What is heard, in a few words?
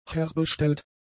"herbestellt", German; adjectives